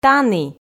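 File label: "тані", Komi, female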